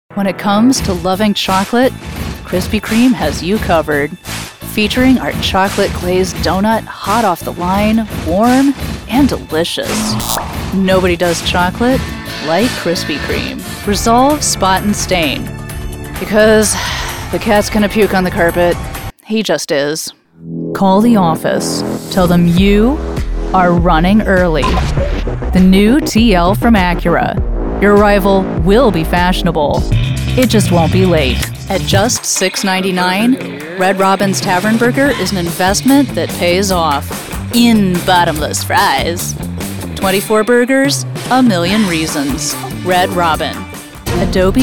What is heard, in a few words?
commercial